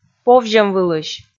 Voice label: "повзьӧмвылысь", Komi

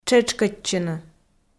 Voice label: "тшетшкӧдчыны", Komi

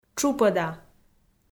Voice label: "тшупӧда", Komi

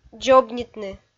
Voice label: "дзобнитны", Komi

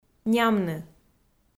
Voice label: "нямны", Komi

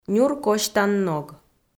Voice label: "нюр косьтан ног", Komi

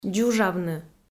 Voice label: "дзужавны", Komi

